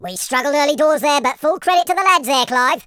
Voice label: victory, Footy Fan speech